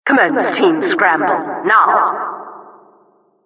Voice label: announcer team scramble